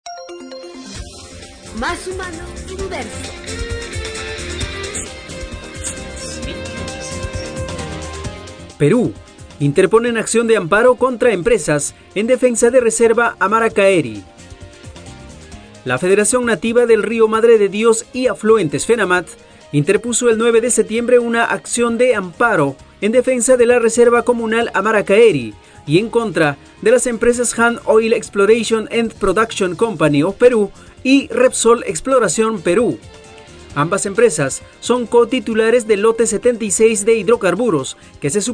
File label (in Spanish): noticias; servindi